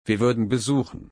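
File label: "besuchen", German, slow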